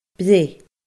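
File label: "breith", Scottish Gaelic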